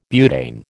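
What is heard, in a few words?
"butane", American English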